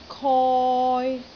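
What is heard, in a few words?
"koi3", Cantonese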